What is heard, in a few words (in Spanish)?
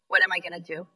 Voice Acting es